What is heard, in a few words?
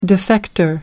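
"defector", British English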